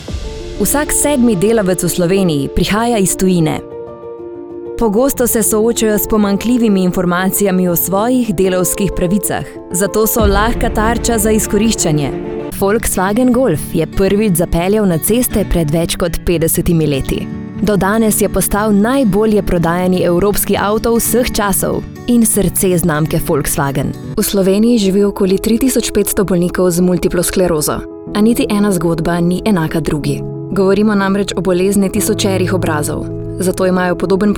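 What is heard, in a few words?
narration voicereel slovenian female voice over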